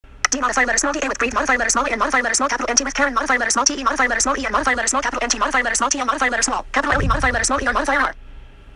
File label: voiceover